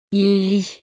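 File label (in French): "il lit", French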